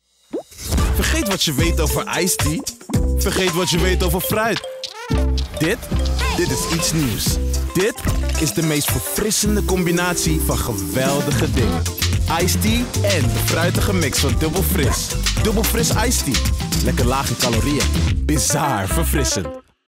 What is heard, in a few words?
NL; DubbelFriss IceT